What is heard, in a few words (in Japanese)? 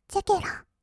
"チェケラ", kawaii voice; ASMR; 1200 kawaii voice!!; 音ゲー